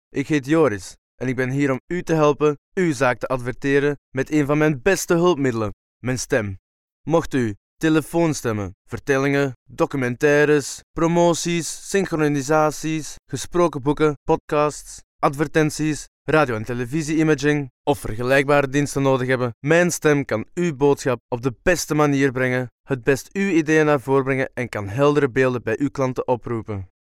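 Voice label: Flemish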